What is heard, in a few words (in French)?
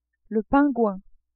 "pingouin", French